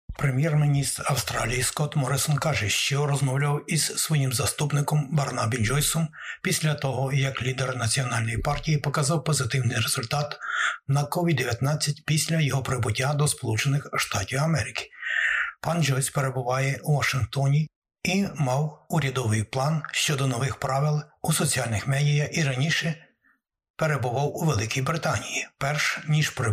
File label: ukrainian news; SBS Ukrainian; COVID-19 та його варіанти Омікрон і Дельта в Австралії та світі, головний речник ВООЗ застерігє країни планети